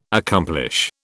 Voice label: "accomplish", British